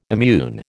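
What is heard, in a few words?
"immune", American English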